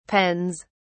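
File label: "pens", English, British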